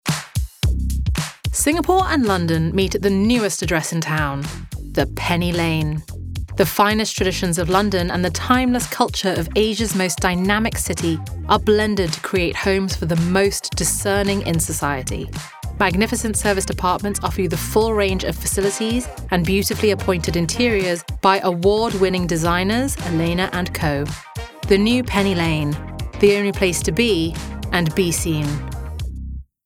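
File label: UK English Property Commercial, British English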